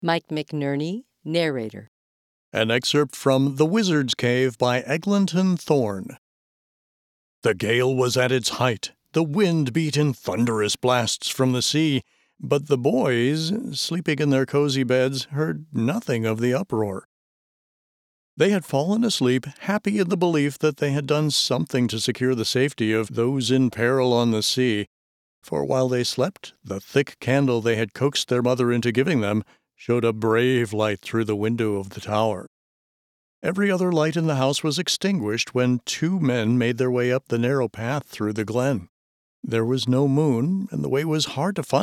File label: Fiction 3rd Person